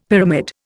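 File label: "pyramid", Indian English; Dictionary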